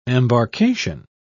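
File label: "embarcation", British English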